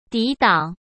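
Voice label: "抵挡", Mandarin Chinese; hsk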